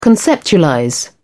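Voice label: "conceptualize", British English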